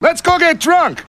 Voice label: chitchat

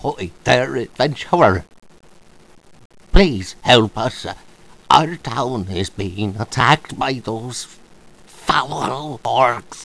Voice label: old villager

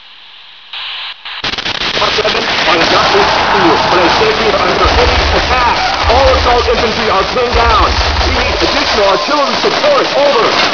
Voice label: radio mike

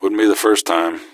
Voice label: answer, gasmask; scmod

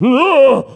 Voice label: pain

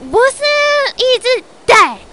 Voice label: cheer

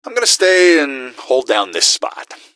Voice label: hold down spot, gasmask; scmod

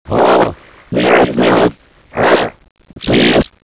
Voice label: gibberish

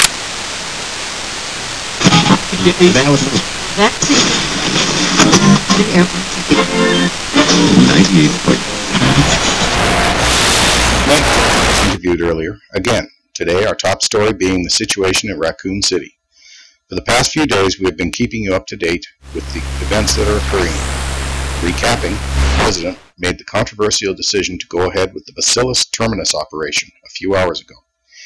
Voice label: news report

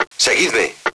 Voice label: follow me, radio

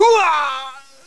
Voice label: death4 ru; cz

